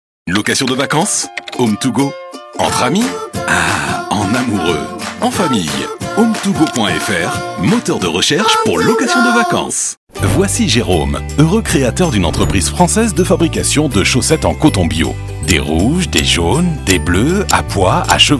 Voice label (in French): Institutionnel, voix masculines; voixoff